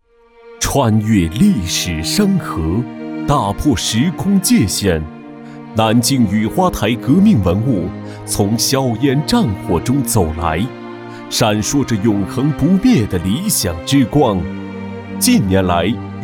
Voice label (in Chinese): 南京雨花台宣传